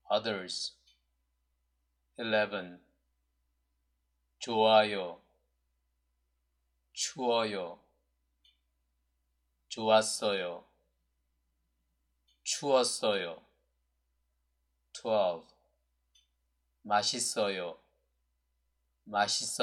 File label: pronunciation practice, Korean